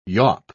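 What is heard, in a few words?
"yaup", British English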